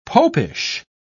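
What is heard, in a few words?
"popish", British English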